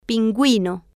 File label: "pinguino", Spanish